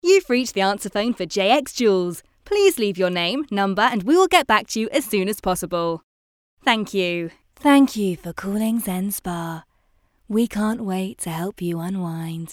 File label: IVR reel